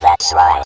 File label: that's right robot